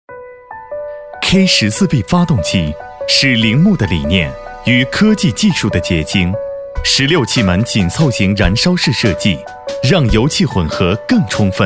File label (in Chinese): 多媒体, 产品演示, 发动机, 科技; 铃木K14B发动机